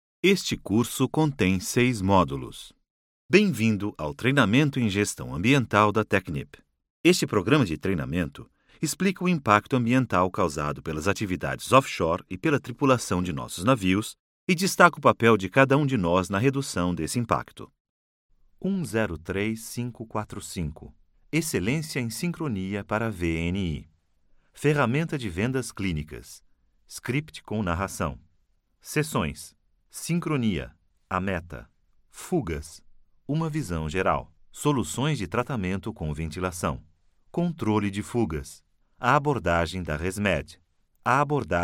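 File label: Brazilian Portuguese e-learning; GoLocalise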